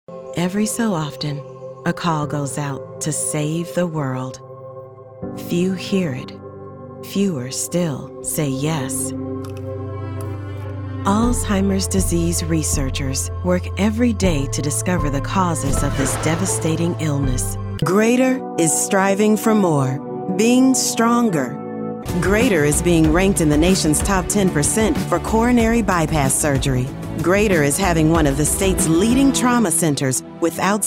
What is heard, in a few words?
women corporate; Rich, smooth, inviting, comforting, warm, and edgy.